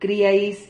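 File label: "criais", Spanish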